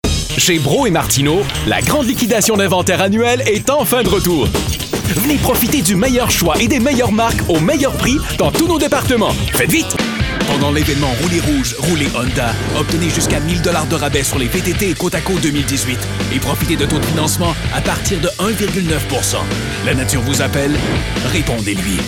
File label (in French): french canadian promos